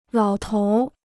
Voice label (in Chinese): "老头儿", Chinese